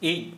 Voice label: Santali Excrement

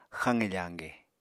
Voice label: "xaqe fañge", Apatani

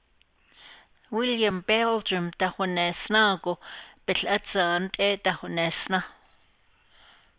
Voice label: navajo; Willem imagined Belgium would win and they won

